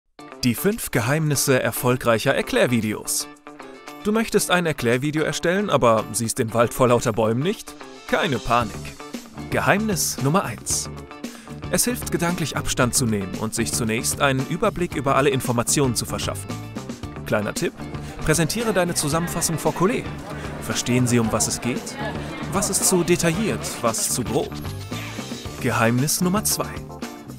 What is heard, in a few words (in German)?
erklaerfilm; explanideo